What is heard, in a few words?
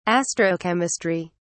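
"astrochemistry", British English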